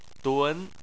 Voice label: "dun2", Chinese

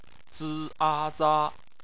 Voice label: "za", Chinese

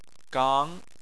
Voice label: "gang2", Chinese

